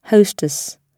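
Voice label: "hostess" British English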